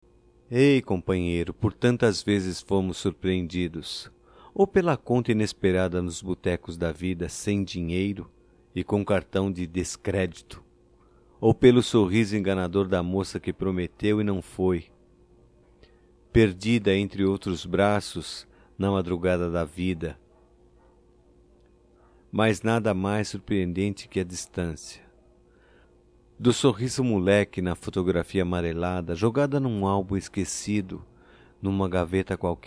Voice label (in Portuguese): M; DIA DO AMIGO; Telemensagens 2017; Datas Mistas